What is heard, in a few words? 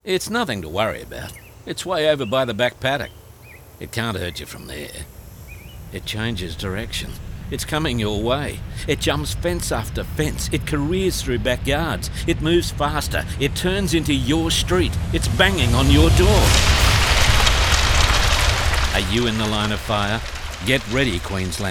Bushfire Safety Radio; Bushfire Safety Radio 30 Get Ready QLD; Paddock